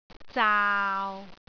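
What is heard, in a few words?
"zaau6", Cantonese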